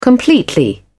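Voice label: "completely", British English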